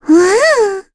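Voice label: Vox Deny, Korean; Lavril; kingsraid; voices heroes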